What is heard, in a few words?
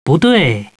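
"no", male; TALKNOW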